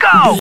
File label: radio voice male soldier go